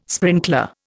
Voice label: "sprinkler", Indian

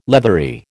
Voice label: "leathery", American

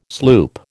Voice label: "sloop", American

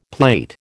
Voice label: "plate", American

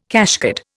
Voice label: "cashcard", Indian

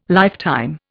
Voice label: "lifetime", Indian

